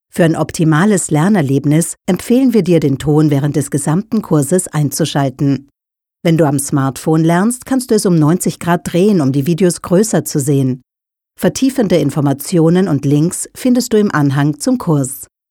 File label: elearning intro